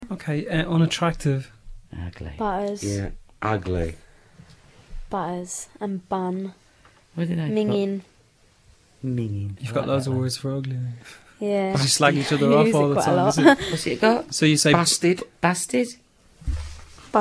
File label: cockney; ugly; speech; dialects